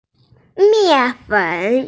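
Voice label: "mianfen", Mandarin Chinese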